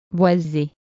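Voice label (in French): "boiser" French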